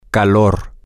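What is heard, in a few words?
hot, spanish, male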